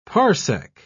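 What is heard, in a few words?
"parsec", British English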